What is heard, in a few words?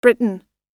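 "britain", American English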